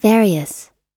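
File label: "various", English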